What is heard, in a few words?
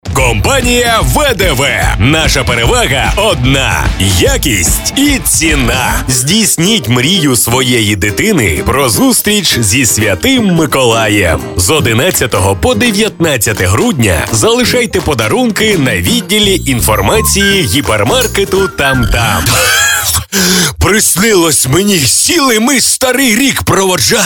ukrainian commercial